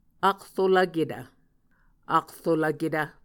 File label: "akrolvketv", muscogee; Mvskoke Words with Voicing Consonants; A Dictionary of Creek/Muskogee; SIL International